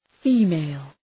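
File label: "female", English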